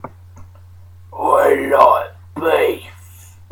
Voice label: Gentleman saying that they like beef in comedy accent